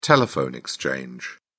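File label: "telephone exchange", British English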